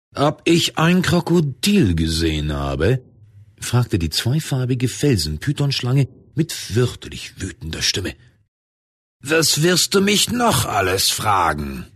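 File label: German, male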